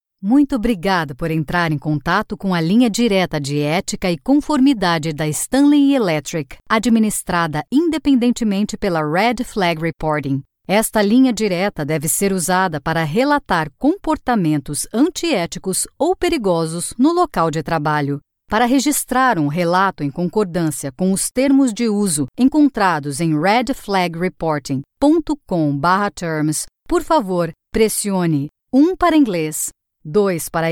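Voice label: PT IVR, Portuguese; GoLocalise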